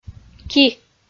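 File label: "ки", Komi, female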